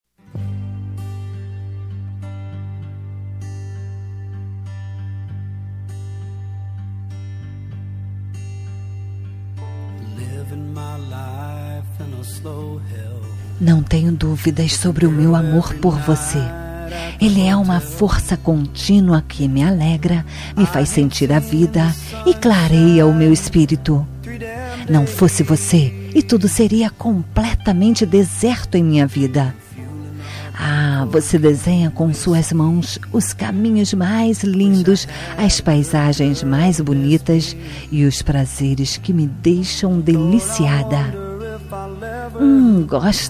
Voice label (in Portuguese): romântica, Telemensagem Romântica – Voz Feminina